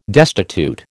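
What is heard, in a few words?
"destitute", American English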